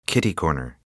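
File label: "kitty", English, us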